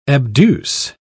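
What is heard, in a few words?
"abduce", British English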